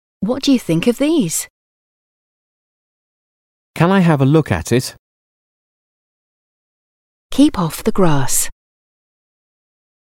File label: English Sentences